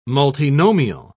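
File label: "multinomial", English, British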